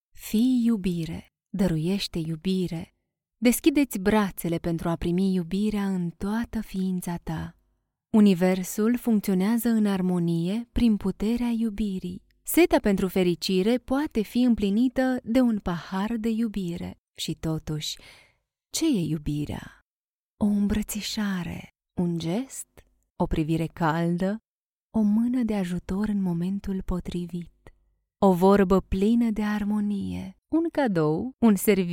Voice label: romanian audiobooks

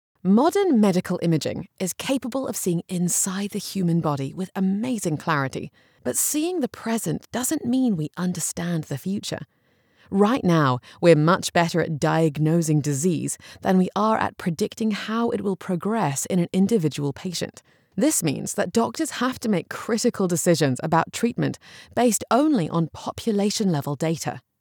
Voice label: english british medical narration

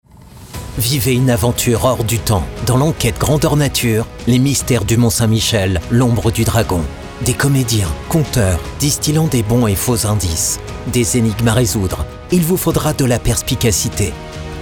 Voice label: french tv shows

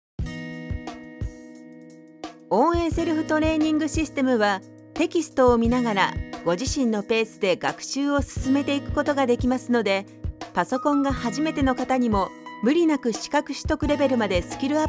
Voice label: japanese e learning

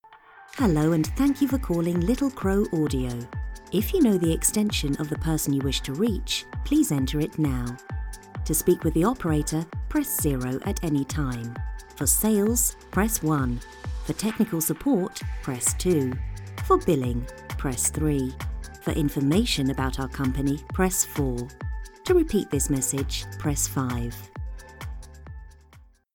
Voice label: english british ivr

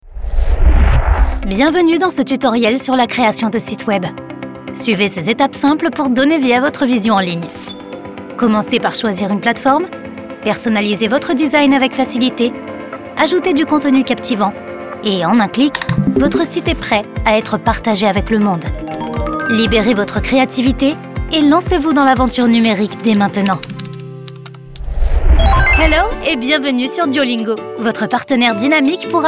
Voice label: french e-learning

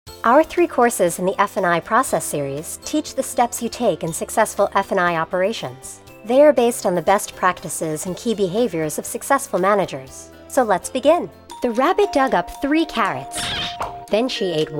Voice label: english american training e learning

